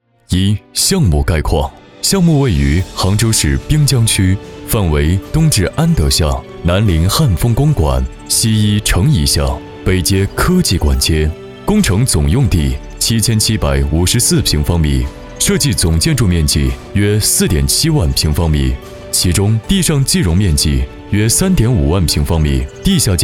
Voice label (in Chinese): 男 工程解说; 滨丽大厦